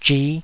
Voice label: "zhi5", Taiwanese syllable

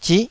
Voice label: "zih9", Taiwanese syllable

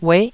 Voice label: "ueh9", taiwanese

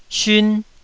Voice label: "siun1", Taiwanese

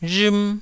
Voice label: "rhm1", taiwanese

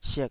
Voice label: "sik3", Taiwanese syllable

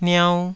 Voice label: "niau2", taiwanese

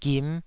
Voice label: "gim5", Taiwanese syllable

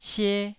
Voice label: "sie1", taiwanese Syllables

